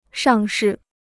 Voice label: "上市", Chinese

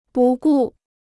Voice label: "不顾", Chinese

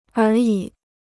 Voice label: "而已", Chinese

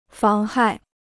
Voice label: "妨害", Chinese

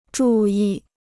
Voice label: "注意", Chinese

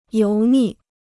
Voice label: "油腻", Chinese

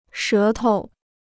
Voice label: "舌头", Chinese

